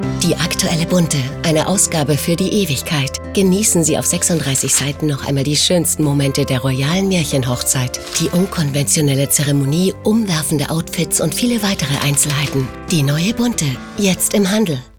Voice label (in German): Spots Off, frauen; sprecher; Bunte